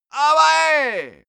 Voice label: male gibberish attack; menschen; schreie